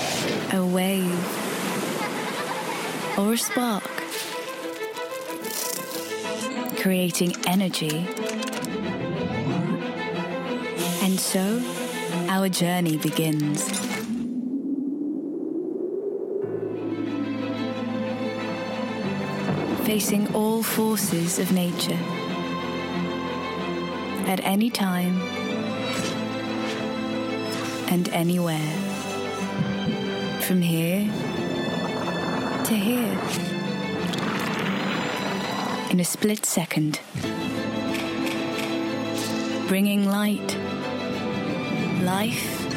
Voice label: female english with undetectable accent commercial; dutch flemish and english